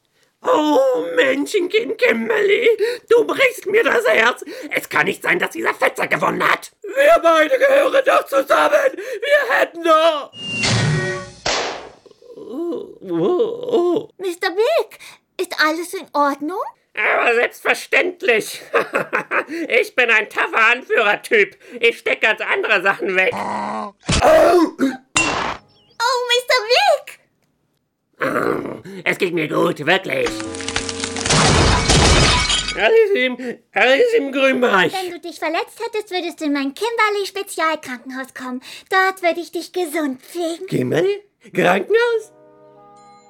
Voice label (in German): Trickfilm, Sprecher; CATSCRATCH; Trickfilmsynchron; TV+Synchron GmbH Berlin; Regie